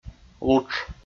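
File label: "лутш", Komi, male; kpv audio dict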